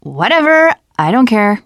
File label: teen girl